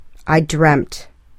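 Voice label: "I dreamt", English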